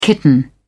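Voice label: "kitten", British English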